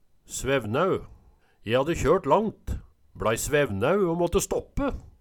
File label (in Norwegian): svevnæu, Numedalsmål